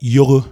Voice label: "Yoho", Efik; efik-dataset